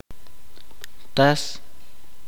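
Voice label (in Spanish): "qué", chuj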